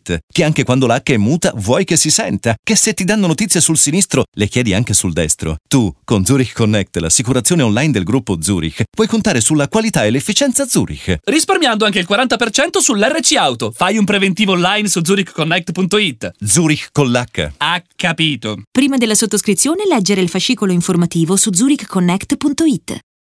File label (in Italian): Spot esigente; ZURICH CONNECT